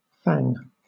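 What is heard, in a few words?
"fang", British English; pronunciations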